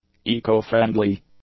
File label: "eco-friendly", English; adjectives